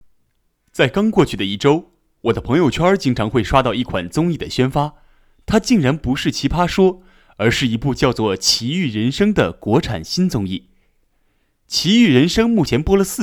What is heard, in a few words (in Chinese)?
综艺类